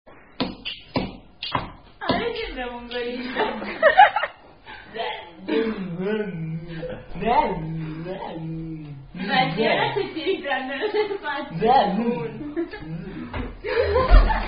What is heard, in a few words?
Italian Version Of Are You A Cardinal Drinking Game; Capitano Puff